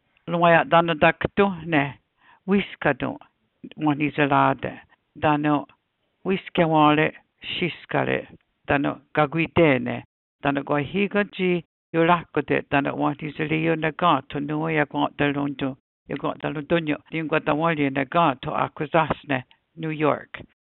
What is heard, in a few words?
MOHAWK